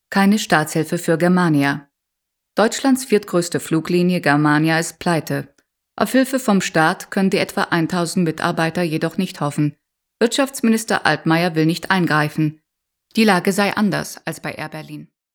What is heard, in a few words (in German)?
Nachrichten